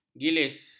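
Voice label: "gilis", Malay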